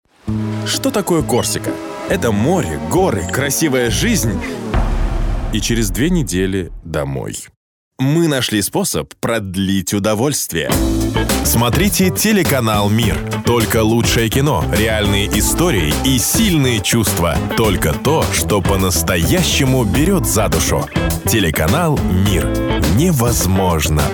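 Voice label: Russian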